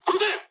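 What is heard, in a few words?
Japanese attack position mood low; War Thunder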